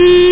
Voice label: threat; Super Games Pak (1991)(Odyssey)